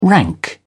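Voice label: "rank", British English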